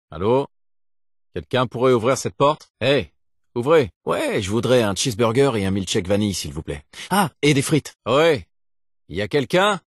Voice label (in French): Holobande de répondeur (homme)